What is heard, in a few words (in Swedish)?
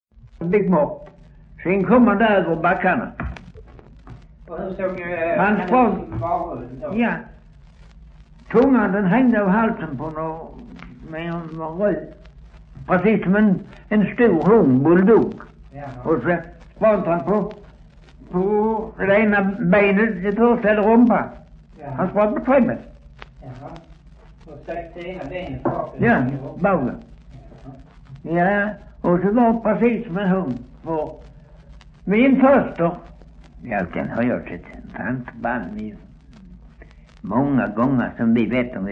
Östra Ingelstad SK, dialekter; Folkminnen